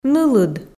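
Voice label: "нылыд", Komi, female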